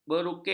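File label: "berukir", Malay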